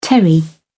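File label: "terry", English, British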